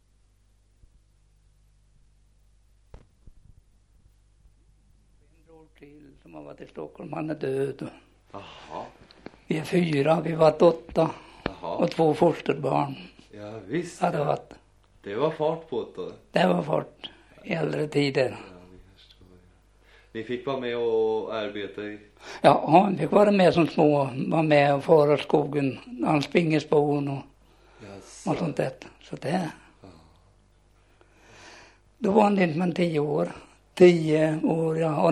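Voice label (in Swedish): Askeby Östergötland, dialekter; Folkminnen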